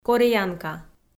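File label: "кореянка", Komi, female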